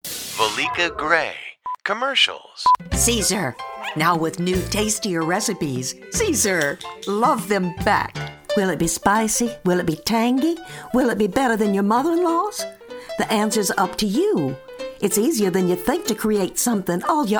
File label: A Variety of Spots and Characters